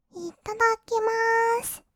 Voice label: "いただきまーす", kawaii voice; 挨拶

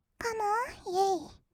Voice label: "カモン イェイ", kawaii voice; ASMR; 1200 kawaii voice!!; 音ゲー

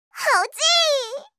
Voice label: 「はおちー！」, 相づち・反応系【ポジティブ】

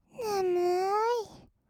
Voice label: ねむーい, kawaii voice; ASMR; 色々なワンショット

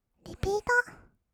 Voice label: リピート, kawaii voice; 音ゲー

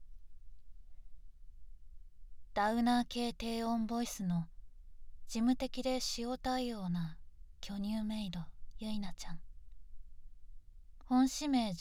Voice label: フリートーク

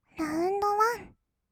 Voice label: "ラウンド ワン", kawaii voice; ASMR; 1200 kawaii voice!!; 音ゲー

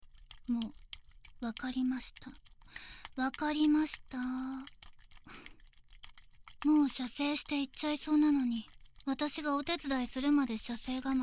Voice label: メイドさんの耳舐め射精応援, ASMR

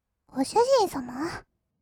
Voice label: ご主人様, kawaii voice; ASMR; 二人称

